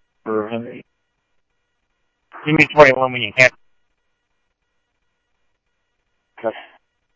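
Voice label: Mesa Police Department, scanner audio trunk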